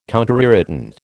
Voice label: "counterirritant", American